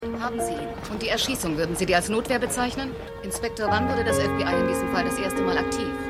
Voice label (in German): Reporterin; Picket Fences